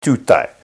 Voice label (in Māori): "tutae", te reo